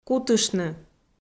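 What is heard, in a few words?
"кутыштны", Komi, female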